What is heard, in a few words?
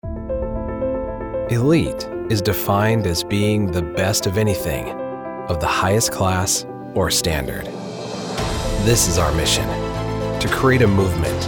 corporate